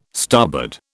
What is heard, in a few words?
"starboard", British